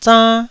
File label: "zann1", taiwanese syllables